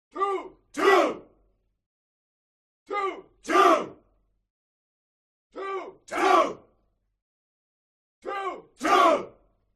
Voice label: CROWD Military Shout English "Two"; HUMAN; smartsound

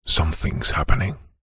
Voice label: human male says somthings happening

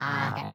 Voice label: FUN MONSTER Droid robot agreed; Droid-2

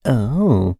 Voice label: human voice male camp says "ohhh"

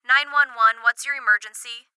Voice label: Police Dispatch; Blastwave FX

